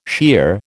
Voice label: "shear", American